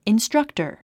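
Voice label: "instructor", English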